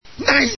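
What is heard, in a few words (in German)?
"nein", German; css; skyesports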